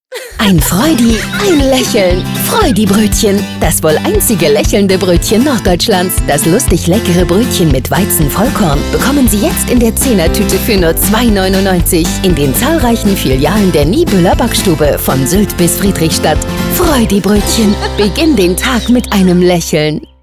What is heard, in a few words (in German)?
Radiospot; Freudi